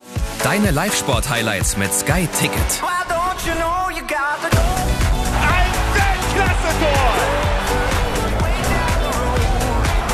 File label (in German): Spots OFF, maenner; Sky Ticket